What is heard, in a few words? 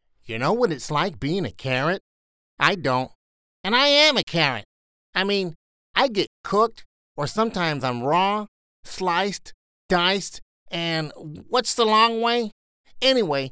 Kid